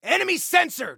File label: Warn Context Sensor, Soldier Male